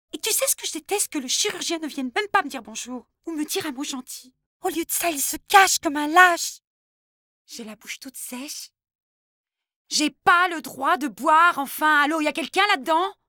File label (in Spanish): doblaje ficcion